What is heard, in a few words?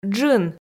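"джын", Komi, female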